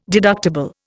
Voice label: "deductible", Indian